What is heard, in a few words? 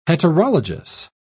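"heterologous", English, British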